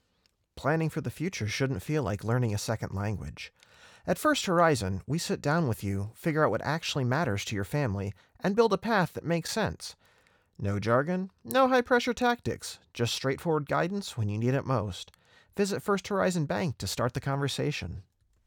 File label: Commercial Financial or Lifestyle